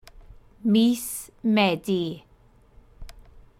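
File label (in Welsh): "mis medi", Welsh; September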